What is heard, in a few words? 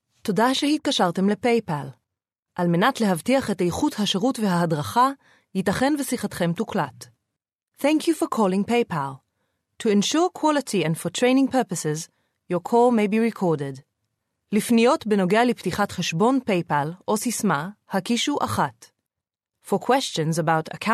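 Hebrew, General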